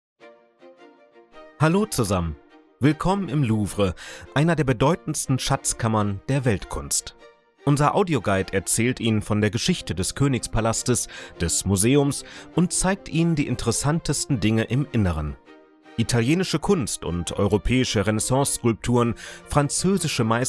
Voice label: Voice Over; Louvre